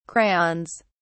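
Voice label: "crayons", English, British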